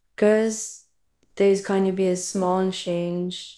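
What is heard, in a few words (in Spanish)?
Voice Acting es F, Spanish, female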